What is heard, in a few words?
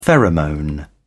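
"pheromone", British English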